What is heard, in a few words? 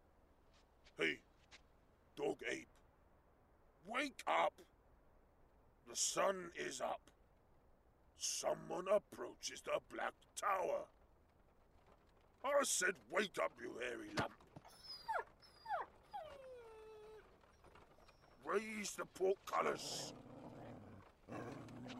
Animation